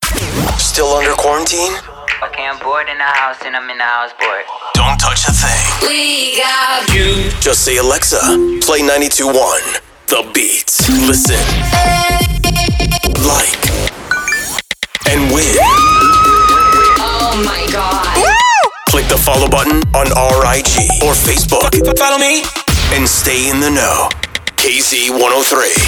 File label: RHYTHMIC VO